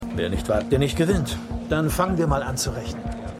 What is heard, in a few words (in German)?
Mann; For All Mankind